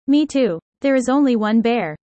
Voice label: user fast